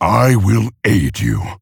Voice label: Male Orc; Thrall; Jaina

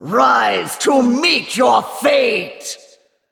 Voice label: Female Val'kyr Threaten

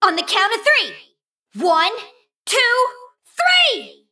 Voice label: Female Mecha Gnome Victory; Story Faelin Mission7

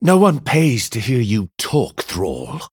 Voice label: Male Human; Blackmoore; Thrall; Mission1 Emote Response

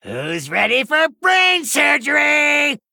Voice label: Male Gnome

